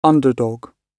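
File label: "underdog", British English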